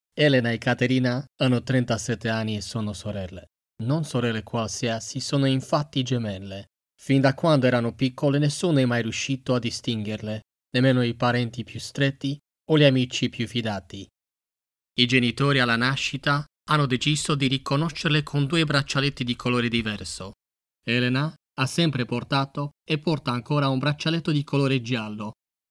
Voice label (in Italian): Italian audiobook fragment Narration; voice reel; Narration with English subtitles; Come due gocce d'acqua